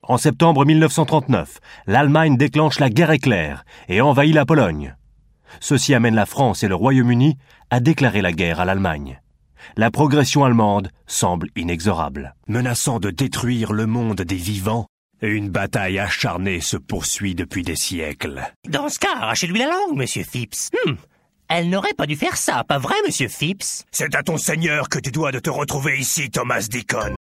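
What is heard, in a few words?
French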